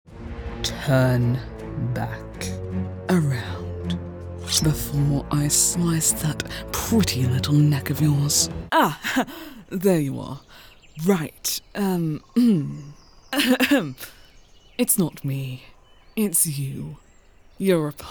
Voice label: Masc Androgynous; en-GB; fairy; androgenous; cinema trailer